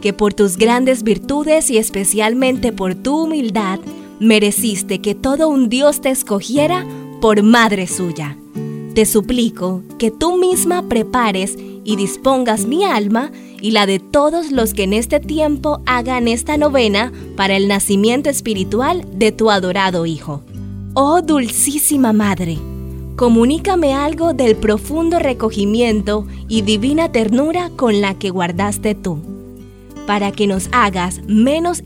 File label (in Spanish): Oración a la virgen Musica Fondo; Novena